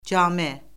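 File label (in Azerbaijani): "came", Azerbaijani; Orfoqrafiya Lüğəti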